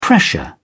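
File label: "pressure", British English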